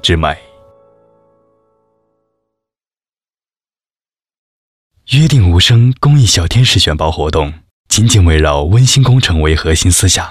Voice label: 男 年轻温暖 公益

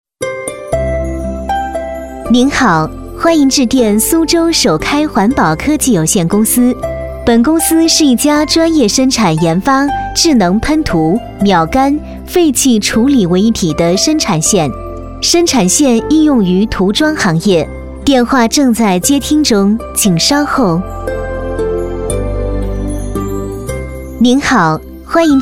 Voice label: 女 彩铃; 苏州首开环保科技

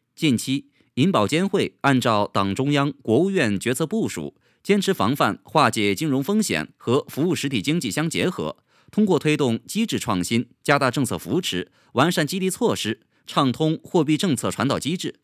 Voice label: 男 新闻播报

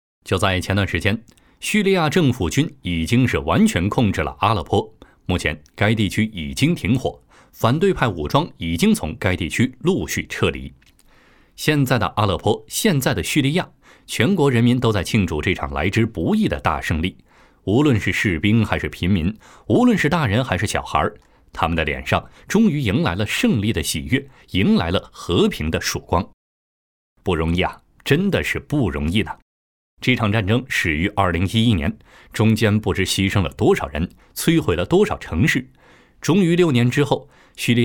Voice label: 男57 有声节目录制

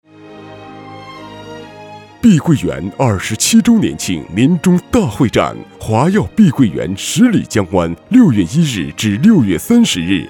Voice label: 男 【促销】电台大气

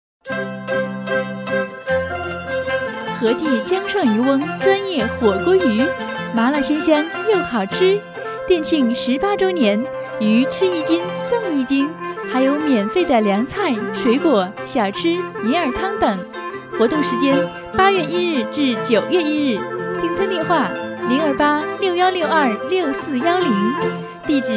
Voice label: 女 年轻; 何记江上渔翁

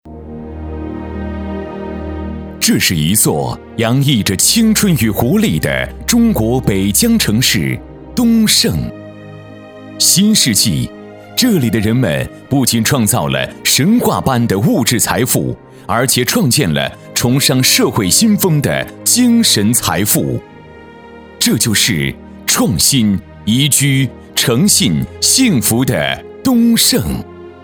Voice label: 男（浑厚品质）; 专题王

